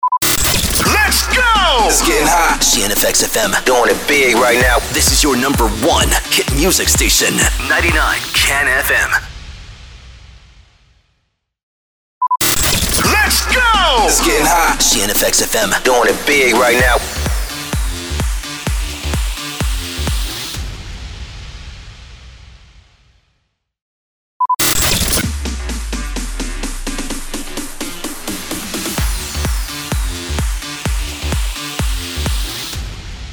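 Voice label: SWEEPER TOP HOUR NO BED 128BPM D minor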